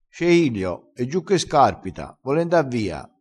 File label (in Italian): "scarpita", crespinese